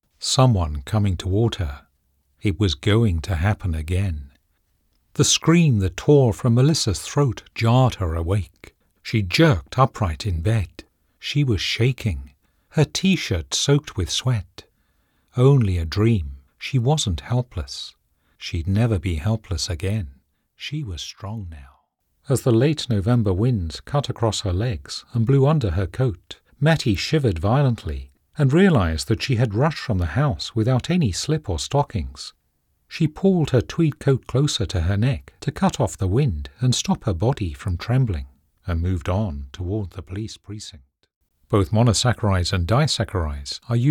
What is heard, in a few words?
Audiobooks, voiceovers